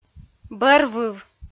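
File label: "бӧравыв", Komi-Zyrian, female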